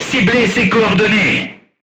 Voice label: voice message art command oneself, crew tanks french